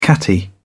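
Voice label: "catty", British English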